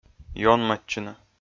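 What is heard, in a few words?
"ёнмӧдчыны", Komi, male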